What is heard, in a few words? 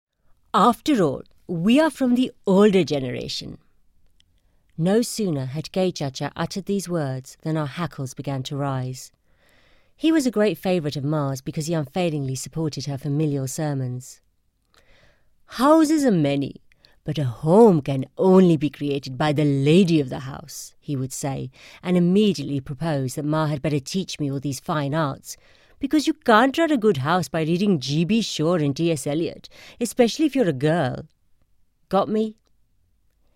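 Hindi Accented English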